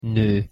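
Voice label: "no", Hungarian pronunciation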